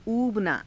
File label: "uubanaa", hindi